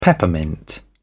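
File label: "peppermint", British English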